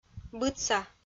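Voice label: "быдса", Komi-Zyrian, female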